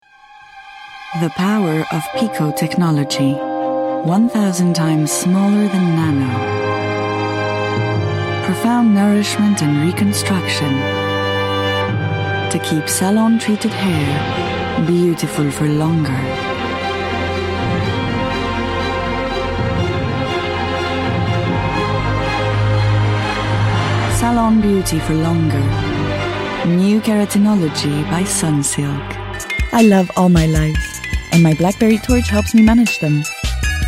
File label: English commercial promo